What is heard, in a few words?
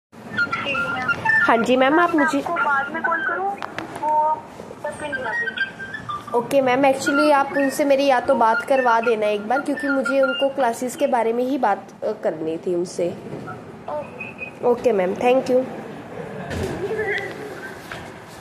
call recording